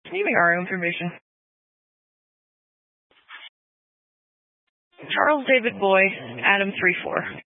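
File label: Sheriff Dispatch, Scanner audio recording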